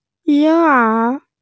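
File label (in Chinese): "ying1er2", Mandarin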